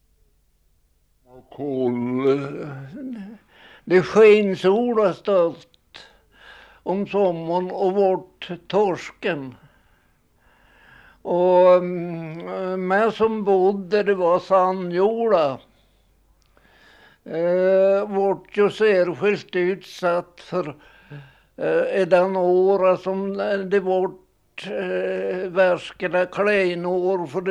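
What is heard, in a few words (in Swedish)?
Näskott Jämtland, dialekter; Folkminnen; Svenska sagor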